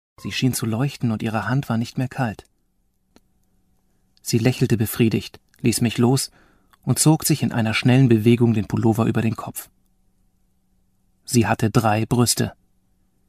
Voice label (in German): Hoerbuch; Doerrie Glueck